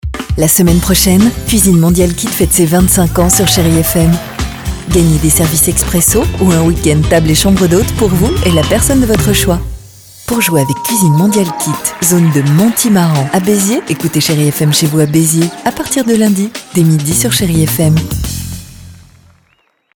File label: radio